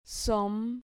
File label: "som", Spanish